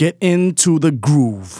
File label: Phrase Male Rap; Vengeance Samples